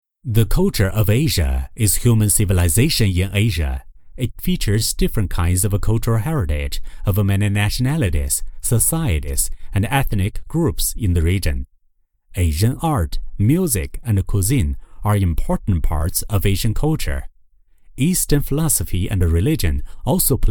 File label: chinese voice Accented English